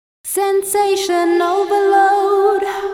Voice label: VOICE GRL D+B 160; eJay